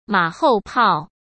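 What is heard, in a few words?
"马后炮", Mandarin Chinese; chinese-flashcards; hsk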